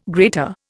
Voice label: "grater", Indian